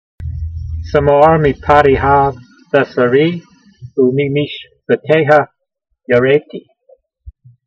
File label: voice, hebrew language; Psalm 119:120; samar meepah'de'ha vesaree, oomeemeeshpateyha yaraytee